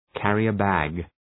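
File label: "carrier-bag", English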